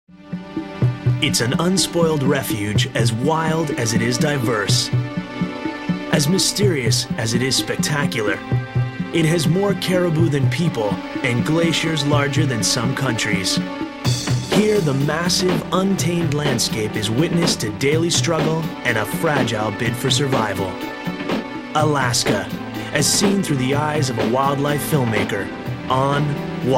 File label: narration male